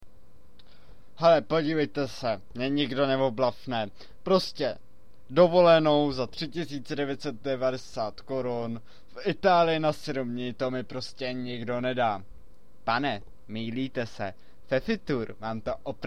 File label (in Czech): reklama